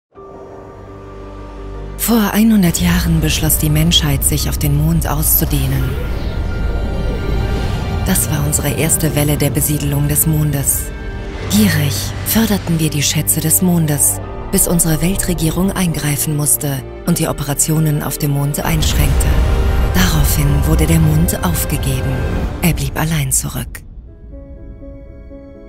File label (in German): spieltrailer; anno 2205